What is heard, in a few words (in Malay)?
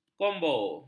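"kombo", Malay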